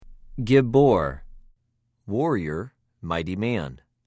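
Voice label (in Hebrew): "גִּבּוֹר", Hebrew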